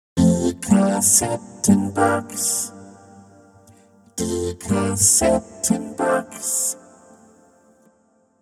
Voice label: Vocoder Sample